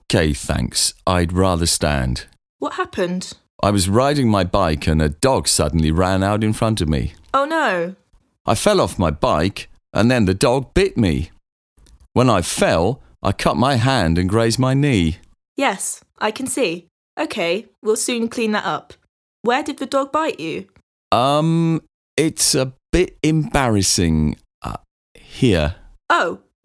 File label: Conversation; Bedside Manner Beginner